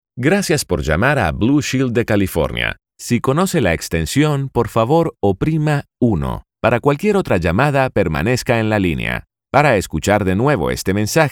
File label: spanish latin american ivr